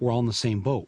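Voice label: were all in the same boat, American English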